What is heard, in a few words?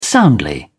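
"soundly", British English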